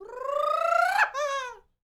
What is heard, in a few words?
MEXICO VOICE, World Voices; MEXICAN; ILIO; Vocal Planet VOL-5